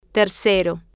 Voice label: "tercero", spanish